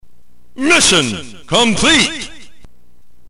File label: narrator